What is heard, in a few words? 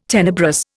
"tenebrous", Indian